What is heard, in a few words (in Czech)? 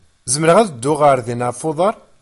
berberština